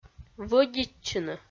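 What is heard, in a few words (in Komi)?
"вӧдитчыны", Komi-Zyrian, female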